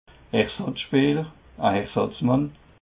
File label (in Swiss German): "ersatzspeeler", alsace